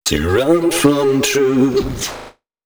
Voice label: male d# 130, short hooks, Dance Voice; Mutekki Media; Dance Ultra Voice VOL-1